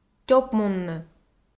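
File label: "тёпмунны", Komi, female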